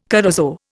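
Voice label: "corozo", Indian English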